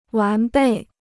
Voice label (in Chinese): "完备", Chinese